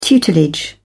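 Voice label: "tutelage", English, British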